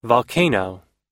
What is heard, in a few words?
"volcano", us; 火山、一触即発(の状態)、(爆発寸前の)激情